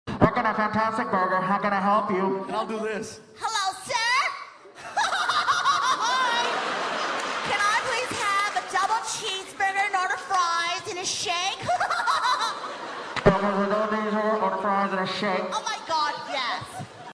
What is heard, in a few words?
drive thru voice; gabriel iglesias